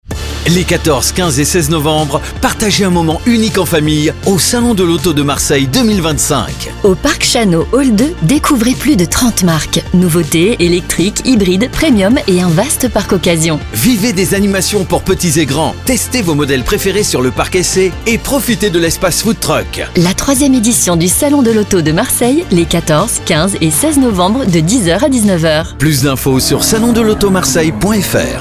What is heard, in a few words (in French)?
spot radio; Salon de l'auto 2025